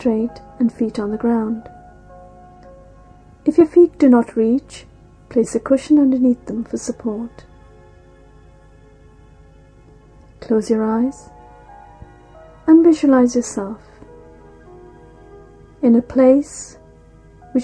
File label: relaxation; dru foundation; dru meditation online